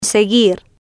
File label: "seguir", spanish